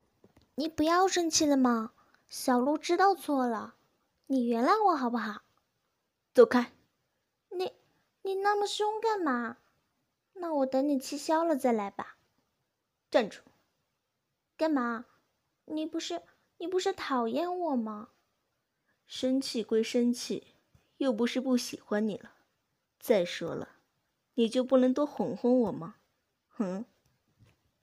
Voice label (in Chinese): 萝莉御姐转音, 女, 普通话